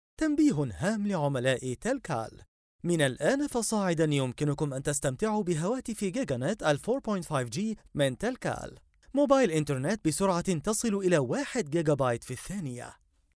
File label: arabic commercial